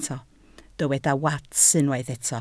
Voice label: "wats", Welsh

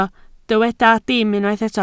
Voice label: "dim", Welsh

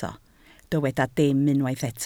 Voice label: "dim", Welsh

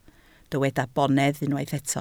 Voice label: "bonedd", Welsh

